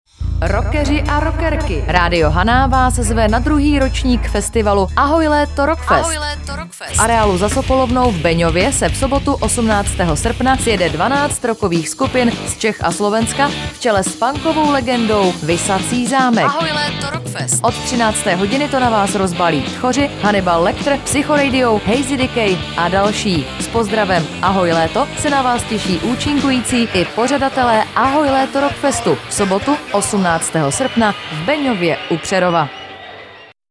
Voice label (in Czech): radiospot; Ahoj 2007